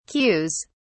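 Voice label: "queues", British English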